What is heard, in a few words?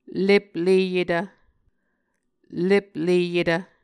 "lepleyetv", Muscogee